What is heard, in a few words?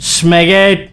Taunt, male; svencoop